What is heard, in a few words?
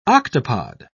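"octopod", English, British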